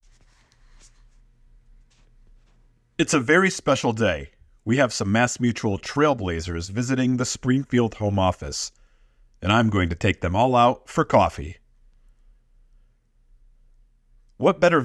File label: Scratch Track